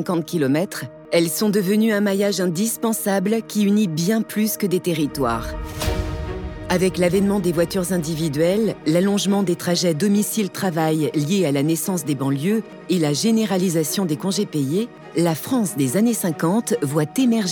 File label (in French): comedienne voix off docu autoroute; A6-A7